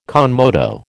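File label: "con moto", American English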